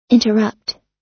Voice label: "interrupt", us